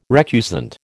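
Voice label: "recusant", American English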